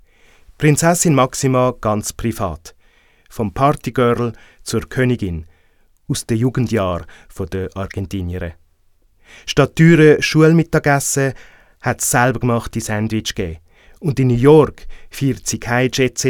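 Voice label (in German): bunte meldung zürich deutsch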